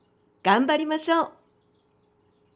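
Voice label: "ganbarimasyou", Japanese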